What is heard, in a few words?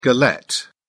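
"galette", British English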